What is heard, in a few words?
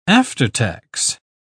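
"aftertax", British English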